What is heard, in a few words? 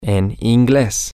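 in english, spanish, male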